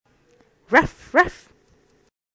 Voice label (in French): Chien - Anglais